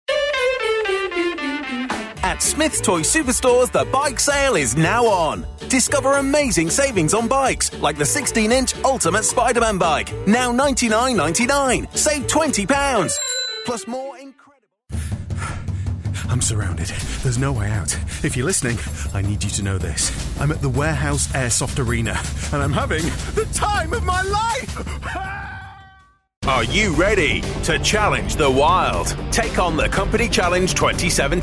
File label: commercials